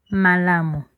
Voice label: fine, Lingala; Malamu